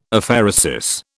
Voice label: "Aphaeresis", British

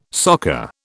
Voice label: "soccer", British

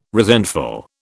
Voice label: "resentful", British

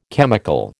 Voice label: "chemical", American

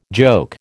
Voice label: "joke", American

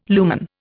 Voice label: "lumen", Indian

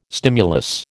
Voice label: "stimulus", American

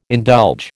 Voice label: "indulge", American

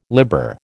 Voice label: "libber", American

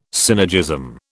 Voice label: "synergism", British

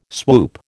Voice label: "swoop", American

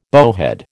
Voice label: "bowhead", American

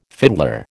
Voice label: "fiddler", American

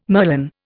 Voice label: "merlon", Indian

